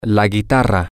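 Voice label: the guitar, spanish, male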